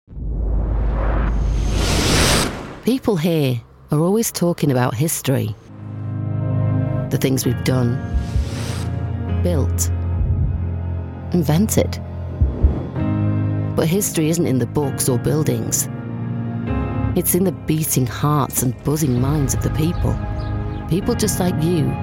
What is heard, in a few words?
corporate